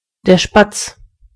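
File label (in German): "sparrow", German; gcompris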